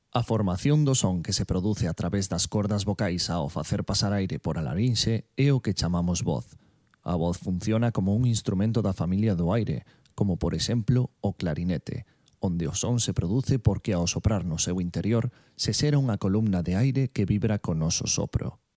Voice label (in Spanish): locutor gallego